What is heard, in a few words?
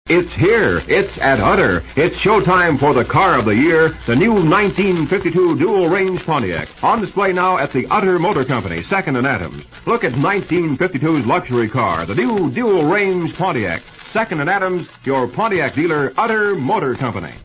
Commercial; KHQ Spokane; Utter Motors; 1952 Pontiac